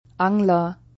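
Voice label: "Angler", German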